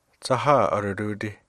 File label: watermelon, Hidatsa